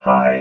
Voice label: "high", robot